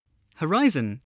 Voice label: "horizon", voice spelling